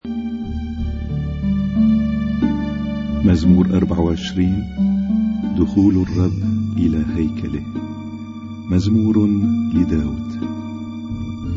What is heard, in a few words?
Arabic Psalm Zaboor